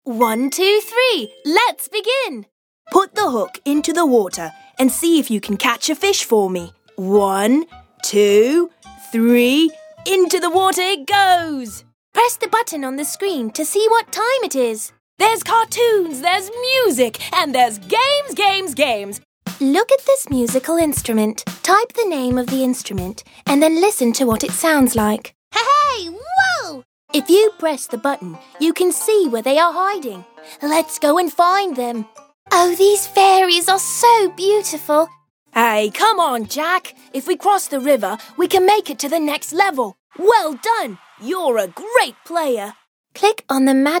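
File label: British English, toys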